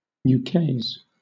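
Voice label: "ukase", British English; pronunciations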